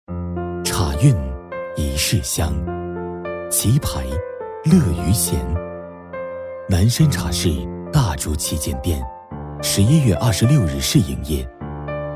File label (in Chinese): 男 开业配音 低沉舒缓, 年轻全能 质感磁性; 南山茶室